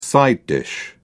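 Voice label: "side dish", British English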